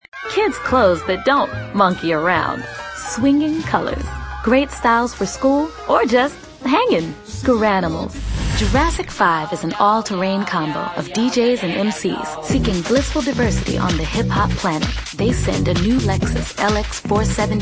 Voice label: female late 20s mid 30s contemp